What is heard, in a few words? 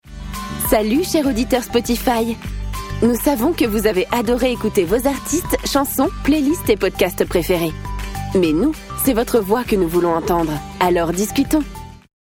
french announcements; French voice-over actress